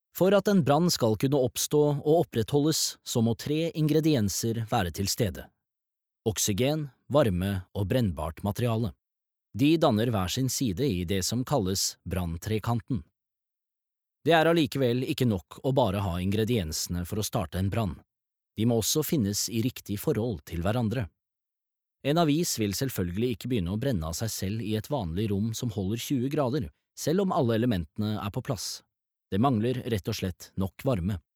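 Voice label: Norwegian elearning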